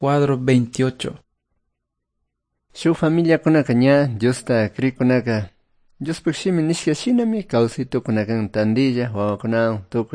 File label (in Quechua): good news quichua cayambe